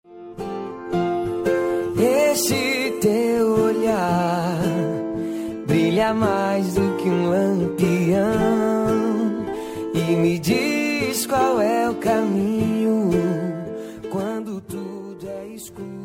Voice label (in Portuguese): dia dos pais feminina; linda voz